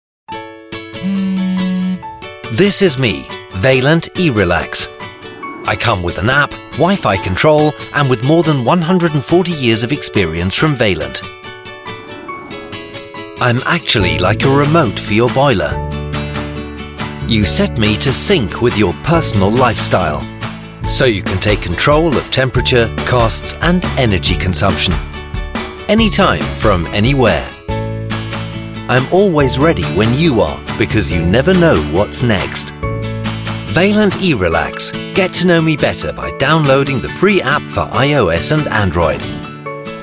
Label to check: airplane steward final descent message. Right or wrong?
Wrong